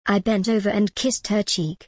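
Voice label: British English, I bent over and kissed her cheek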